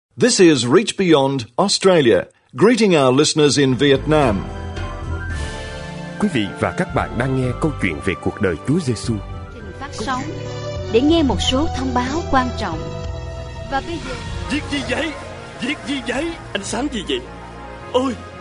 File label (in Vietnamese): Vietnamese